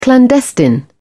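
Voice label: "clandestine", British English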